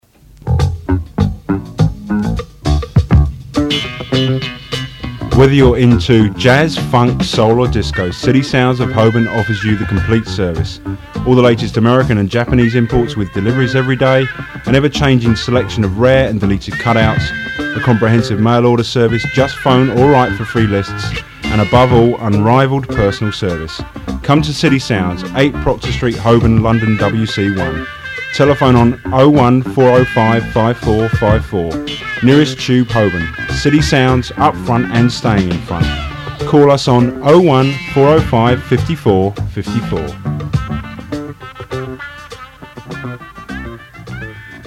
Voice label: Advert, Radio; Record Shop Holborn Advert; 1981 to 1985; City Sounds; Horizon Radio